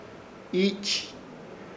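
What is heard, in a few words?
"Iich", Chamacoco word, placenta o nombre o sed; Ishir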